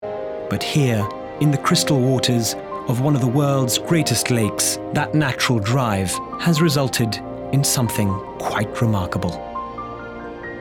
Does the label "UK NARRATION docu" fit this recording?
No